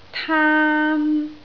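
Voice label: "taan1", Cantonese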